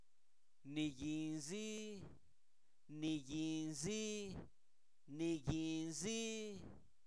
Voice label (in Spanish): solo eso, Zapoteco, santo domingo albarradas; adverbios; INALI